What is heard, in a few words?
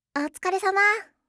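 "otsukaresama", Japanese; aisatsu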